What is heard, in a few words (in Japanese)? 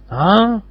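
"あぁん？" Japanese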